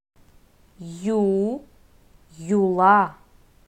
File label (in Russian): "ю", Russian